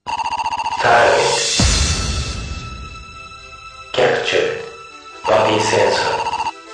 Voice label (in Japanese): ナレーション「(ﾎﾟﾎﾟﾎﾟﾎﾟﾎﾟﾎﾟ…)ダルビッシュ、キャプチャー、戊辰戦争」